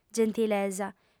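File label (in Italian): "gentilesa", lingua sarda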